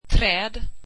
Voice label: tree, swedish

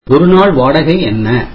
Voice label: tamil What is the charge per night

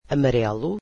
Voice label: "yellow", Portuguese

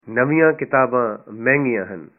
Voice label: new books are expensive, punjabi

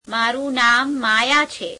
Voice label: gujarati, my name is Maya; [1st possessive pronoun]; મારું નામ માયા છે; [meāreum neām meāyeā chhe]

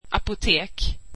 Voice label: swedish pharmacy

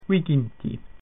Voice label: latin twenty

